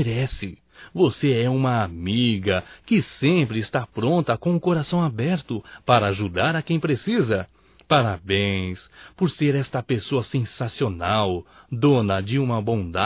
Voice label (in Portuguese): ANIV MADRINHA (M); Telemensagens 2017; Aniversarios